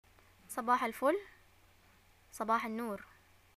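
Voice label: "greeting morning", Yemeni Arabic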